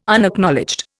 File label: "Unacknowledged", Indian